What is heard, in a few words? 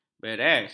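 "beres", Malay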